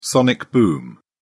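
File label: "sonic boom", British English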